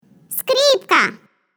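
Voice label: violin, Russian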